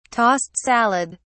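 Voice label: "tossed salad", English, British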